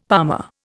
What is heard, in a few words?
"palmer", Indian; Dictionary